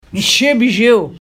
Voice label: "mshi-bzhiw" pronunciation; Aadsookaan related words